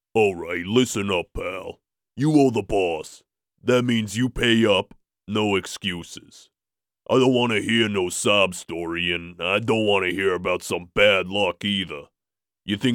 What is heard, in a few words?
Italian Mob Thug; Harrisonburg Virginia